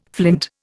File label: "flint", Indian